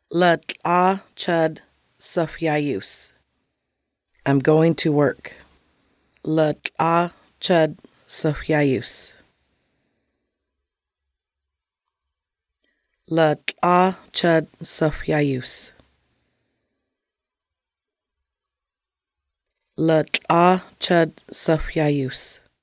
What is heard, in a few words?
i am going to work, Lushootseed; ləƛ̕a čəd cəxʷyayus